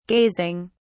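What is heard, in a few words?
"gazing", British English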